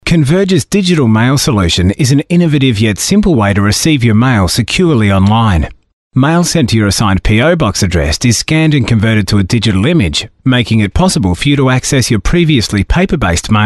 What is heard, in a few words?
Male Talent corporate